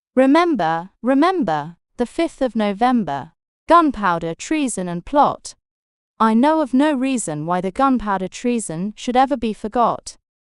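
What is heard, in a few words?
Remember Remember, female, British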